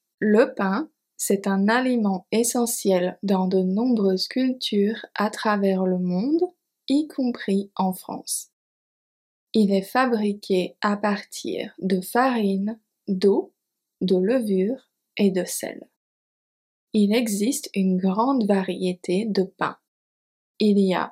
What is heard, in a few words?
French speaking Practice Audio